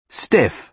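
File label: "stiff", British English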